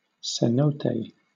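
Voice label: "cenote", British English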